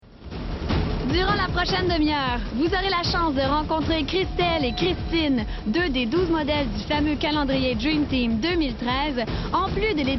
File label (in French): DEMO VOIX